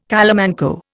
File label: "calamanco", Indian